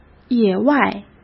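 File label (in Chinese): "野外", Mandarin Chinese; hsk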